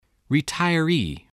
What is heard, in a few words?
"retiree", English